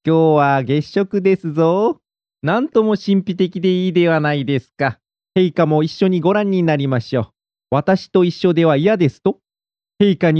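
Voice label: Japanese, male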